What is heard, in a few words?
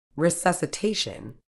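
"resuscitation", medical English, British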